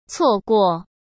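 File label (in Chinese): "错过", Mandarin Chinese; chinese flashcards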